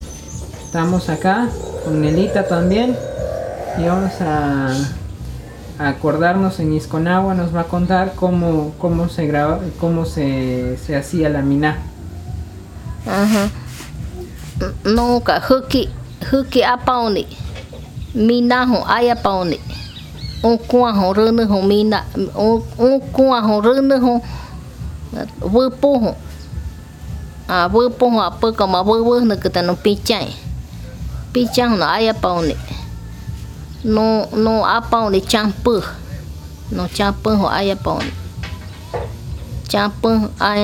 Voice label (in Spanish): Narración